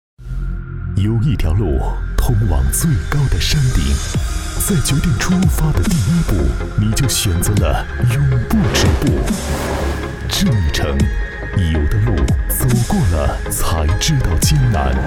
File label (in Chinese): 男 广告 高端 大气 科技; 奥迪